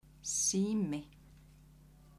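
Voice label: "simi", Icelandic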